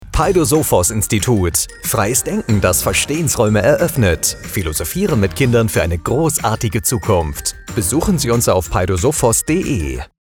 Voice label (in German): Funkspot; Paidosophos-Institut Philosophieren mit Kindern gemeinnuetzige UG